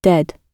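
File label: "dead", British English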